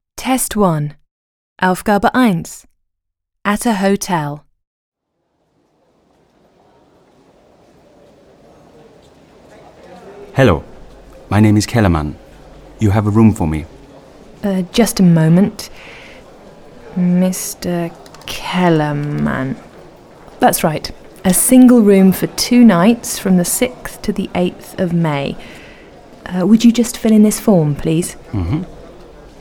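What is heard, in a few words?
British elearning, sprecher englisch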